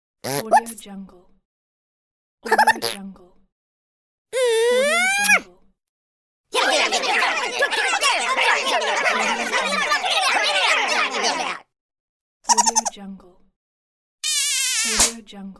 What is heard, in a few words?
funny cartoon voice sound pack